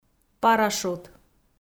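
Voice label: "парашют", Komi, female; audio dict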